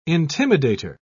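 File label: "intimidator", British English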